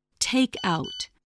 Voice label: "takeout", English